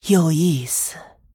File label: Female Human Thinking, zh